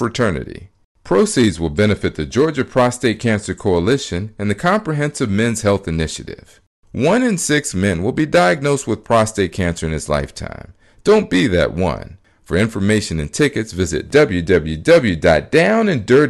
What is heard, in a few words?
Rodeo PSA